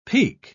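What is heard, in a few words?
"peke", English, British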